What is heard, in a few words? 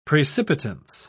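"precipitance", British English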